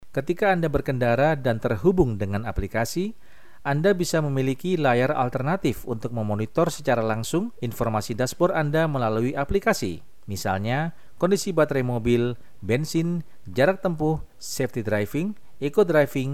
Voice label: Indonesia Casual

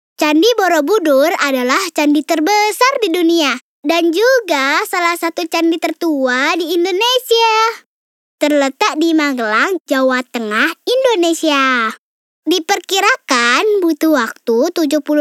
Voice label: casual

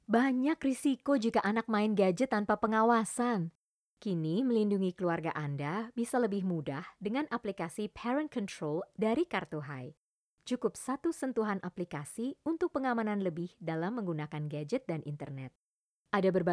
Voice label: Indonesian casual

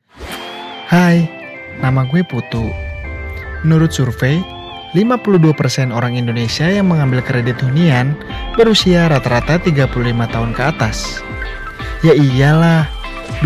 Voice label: Bahasa Formal

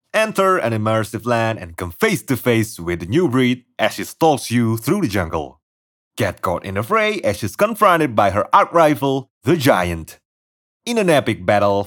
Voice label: Casual English

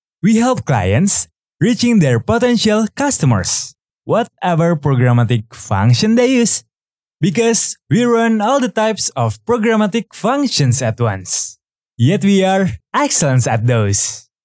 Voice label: ENG CASUAL

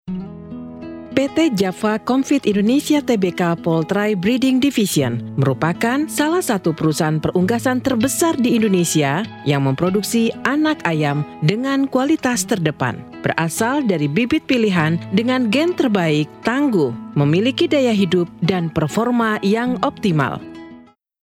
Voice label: INDONESIA FORMAL

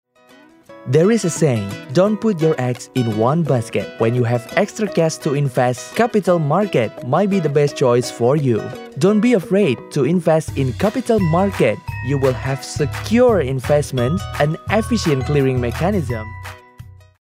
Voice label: CASUAL ENG

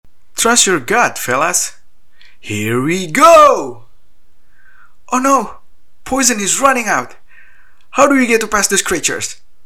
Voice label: CHARACTER ENGLISH

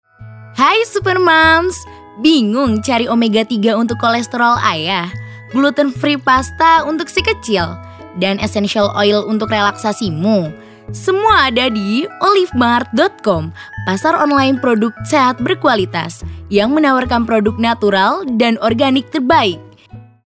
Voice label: INDONESIA CASUAL

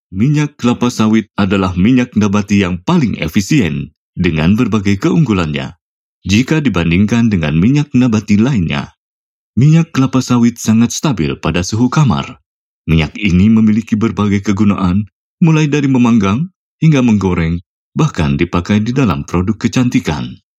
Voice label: FORMAL INDONESIA

